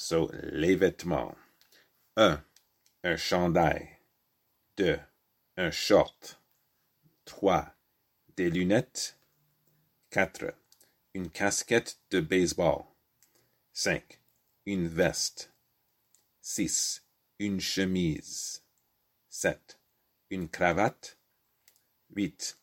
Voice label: basic clothing in French